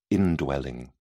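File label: "indwelling", British English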